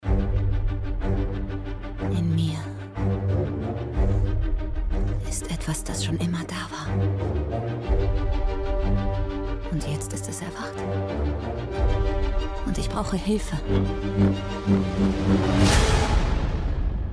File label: Trailer; Star Wars 8